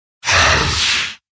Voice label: ork ouch